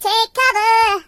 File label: Take cover, voices Singer; hedgewars